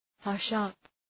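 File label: "hush up", English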